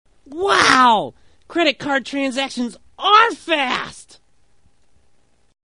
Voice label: fast, voice; "Wow... credit card transactions ARE fast!"